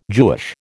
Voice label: "Jewish", American

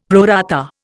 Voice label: "pro rata", Indian; Dictionary

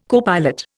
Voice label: "copilot", Indian

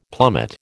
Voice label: "plummet", American

